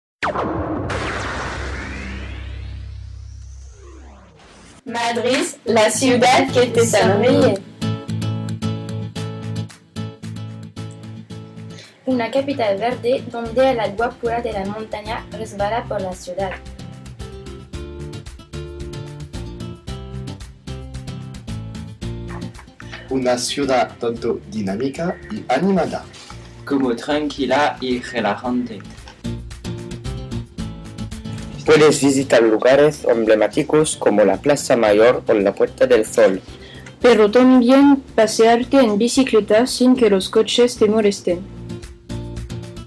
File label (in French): spot publicitaire